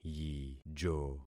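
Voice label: "yijo", concatenated syllables; Affixing experiment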